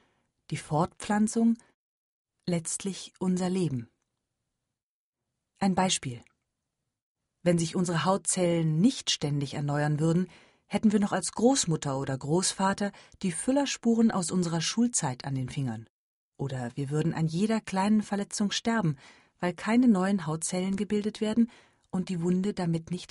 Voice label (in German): E-Learning Zellteilung